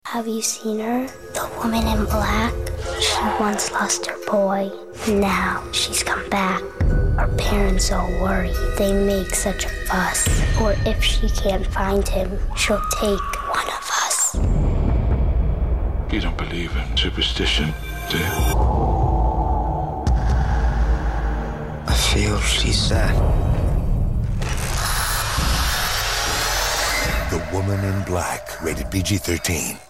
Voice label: TV Spots; Woman In Black